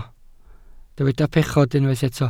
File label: "pechod", Welsh